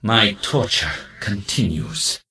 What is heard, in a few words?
Death Knight Male Low Pissed